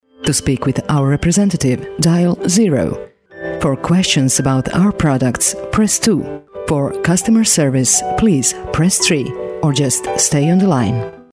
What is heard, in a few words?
female croatian voice over english